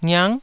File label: "ngiang9", Taiwanese